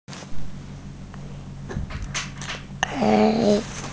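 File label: "gei3", Mandarin Chinese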